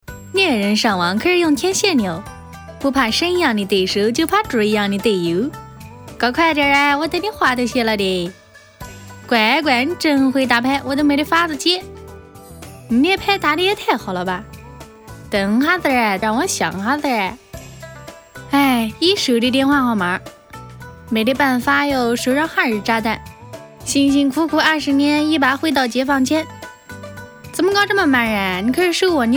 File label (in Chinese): 四川 打牌 方言